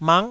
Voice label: "mak9", taiwanese